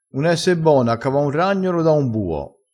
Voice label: "un esse bono", dialettale

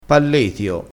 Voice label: "palletio", crespinese

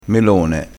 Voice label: "melone", crespinese

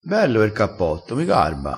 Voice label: "garba", crespinese

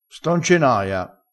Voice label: "sto", vocabolario dialettale crespinese

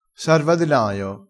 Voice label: "sarvadenaio", crespinese

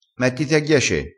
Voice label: "ghiace", crespinese

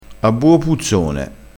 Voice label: "a buo puzzone", Crespinese

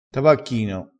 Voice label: "tabacchino", vocabolario dialettale crespinese

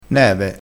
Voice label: "neve", crespinese

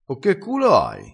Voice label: "ave culo", crespinese

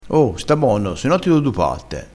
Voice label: "patta", crespinese

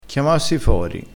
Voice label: "chiamassi fori", crespinese

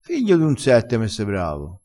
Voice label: "figlio d un sette", vocabolario dialettale

